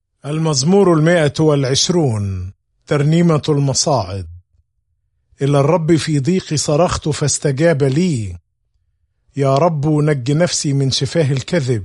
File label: Psalm, arabic bible; psalms